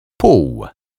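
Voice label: "pol", Polish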